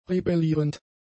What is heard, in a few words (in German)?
"rebellierend", German; adjectives